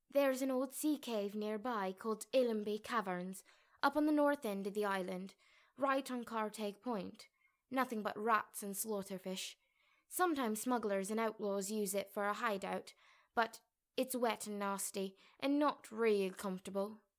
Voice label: breton irish scottish audition

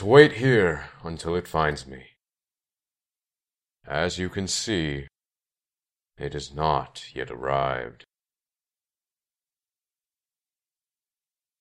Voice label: orc male audition, voice acting; skywind

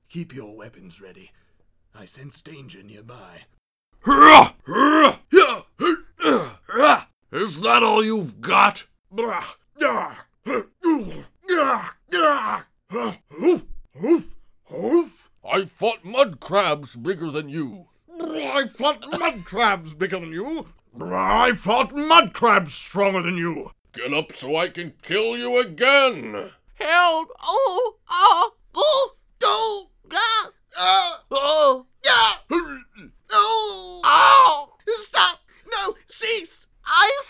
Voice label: combat voices, voice auditions

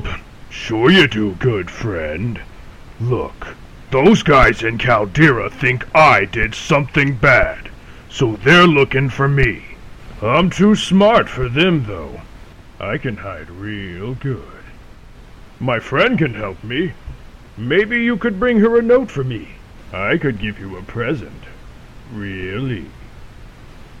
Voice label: orc audition

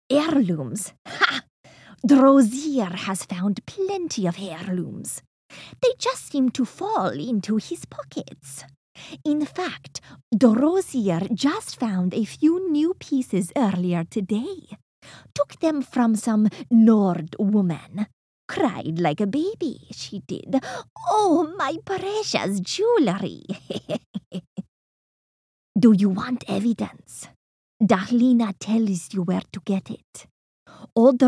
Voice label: khajiit, voice auditions

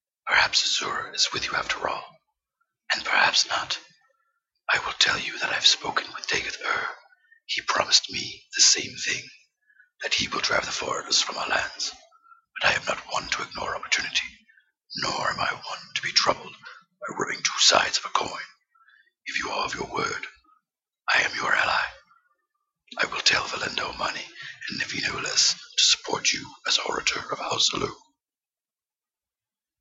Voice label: sample lines dark elf audition

